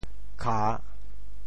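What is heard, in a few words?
"kha3", Teochew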